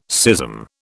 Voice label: "schism", British

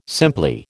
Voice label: "simply", American

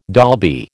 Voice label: "Dolby", American

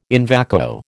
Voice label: "in vacuo", American

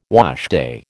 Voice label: "washday", American

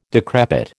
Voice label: "decrepit", American

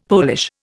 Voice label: "Polish", Indian; Dictionary

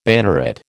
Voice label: "banneret", American

